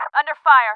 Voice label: under, English

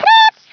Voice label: "fatality", Swedish, Speech; 11khz; International

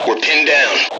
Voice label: suppressed, M Voice English

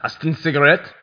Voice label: german; SpecOps2MPlayerDemo

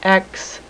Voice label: "x", female, alphabet; Web Clip Empire 150,000

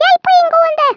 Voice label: Incoming, Speech, Swedish; 11khz; International

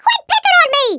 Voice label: "leave me alone", American; Speech; International

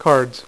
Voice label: "cards", dealer's speech; PowerPOKER Demo

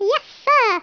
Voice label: "yes sir", English speech

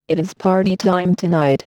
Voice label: VOICEFX TEKNO 140, Techno, Voice

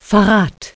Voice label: treason Cast, german

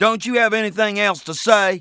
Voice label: conversation, English Voice

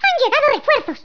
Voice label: drop, Spanish

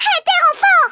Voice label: "drop", French

